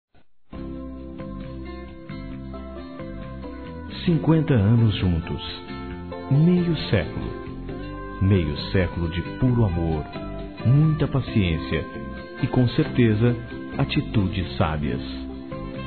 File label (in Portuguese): BODAS DE OURO, Telemensagens, masc; Bodas e Casamento